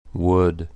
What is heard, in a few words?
"Wood", last names; 100lastnames